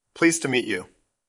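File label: Voice Acting es Male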